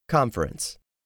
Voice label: "conference", English; 3000 words